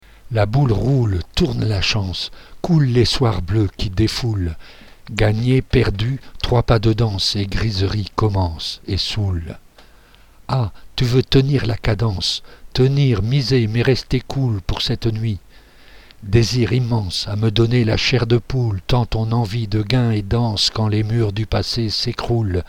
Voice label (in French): Voice slam; seule chance